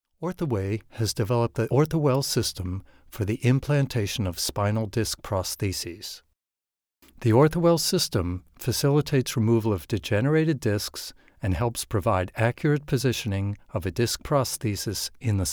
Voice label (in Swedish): amerikansk man